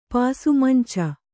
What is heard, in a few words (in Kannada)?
"ಪಾಸುಮಂಚ", Kannada